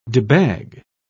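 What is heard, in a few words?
"debag", British English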